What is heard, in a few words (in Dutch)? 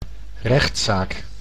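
"rechtzaak", Dutch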